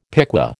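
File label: "piqué", American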